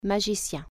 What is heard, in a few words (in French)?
"wizard", French